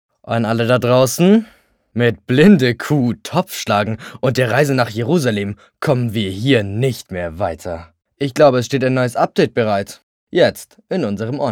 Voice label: Spot, jungen

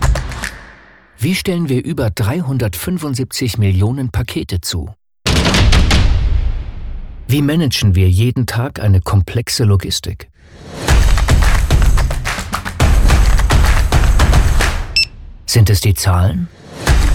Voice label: Convention Movie Deutsch, maenner; Imagefilm; DPD

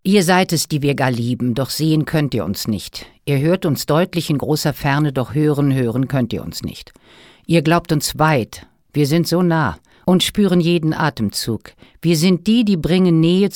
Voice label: Gedicht sachlich, frauen; sprecher

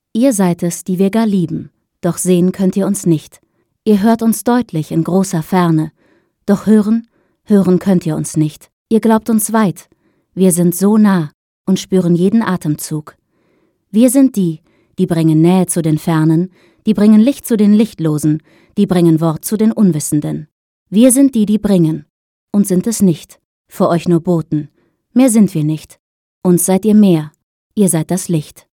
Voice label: sachlich, frauen

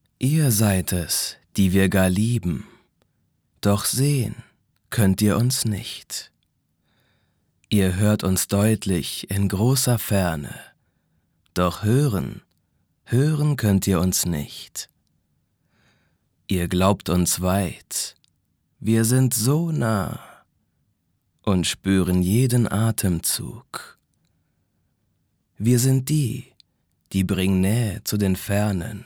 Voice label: poetisch, maenner

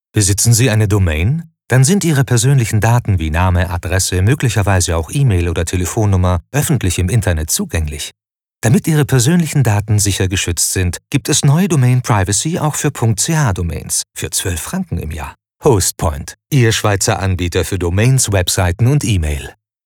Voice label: Spots OFF, maenner; Hostpoint Domain Privacy

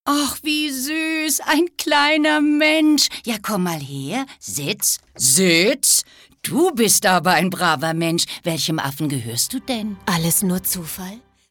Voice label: Spots, Szene, frauen; SZWissen

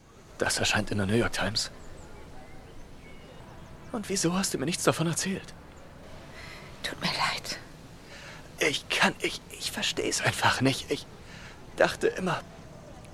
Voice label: Synchron, maenner; Netflix; Ich bin so glücklich

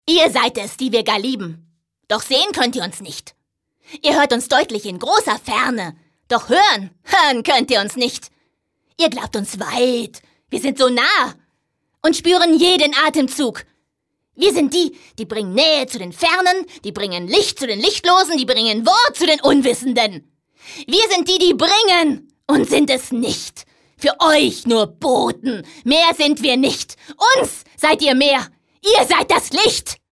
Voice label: aggressiv, frauen; sprecher

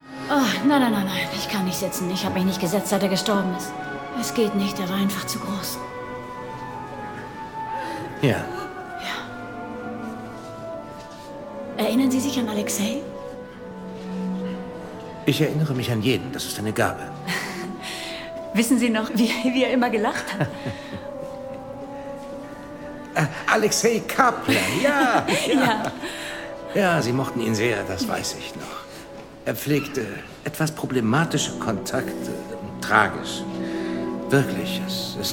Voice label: Synchron, Frauen; The Death of Stalin

